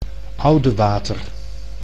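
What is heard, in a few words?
"Oudewater", Dutch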